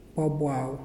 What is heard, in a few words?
"black", Muyuw; Lae Unitech